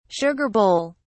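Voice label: "sugar bowl", British English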